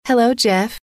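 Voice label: "hello", female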